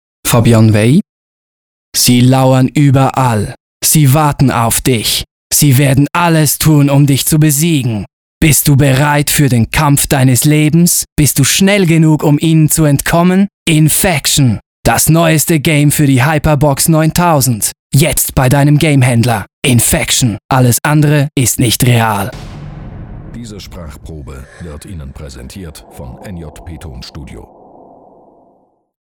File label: Spot Cool; Sprecher-Samples; Aufgenommen im NJP Tonstudio AG in Küsnacht, ZH.